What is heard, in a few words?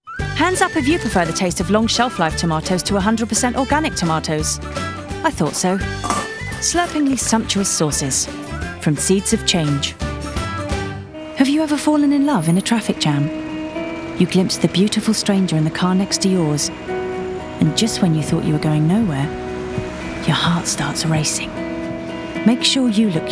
Commercials